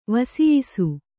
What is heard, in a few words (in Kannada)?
"ವಸಿಯಿಸು", Kannada; vasiyisu; = ವಸಿಸು